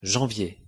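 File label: "janvier", French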